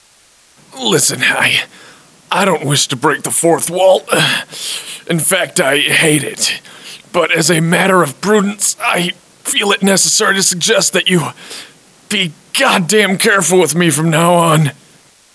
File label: near death; svencoop; fvox